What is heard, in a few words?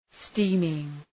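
"steaming", English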